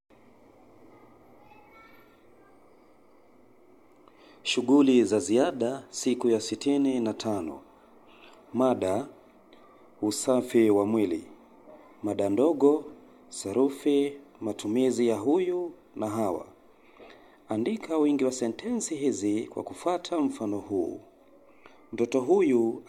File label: swahili male